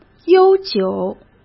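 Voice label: "悠久", Mandarin Chinese; hsk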